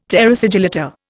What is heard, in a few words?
"terra sigillata", Indian